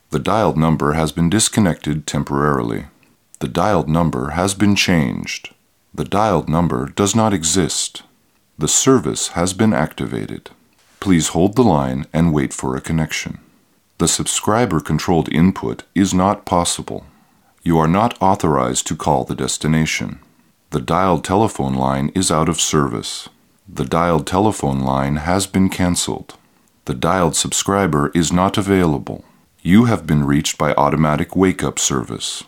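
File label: Male English IVR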